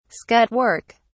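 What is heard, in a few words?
"scut work", English, British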